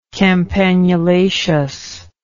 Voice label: "campanulaceous", English